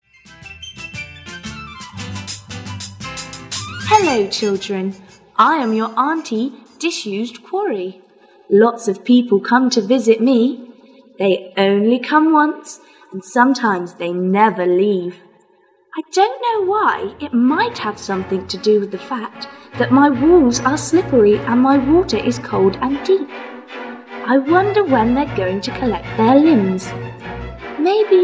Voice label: Radio Ad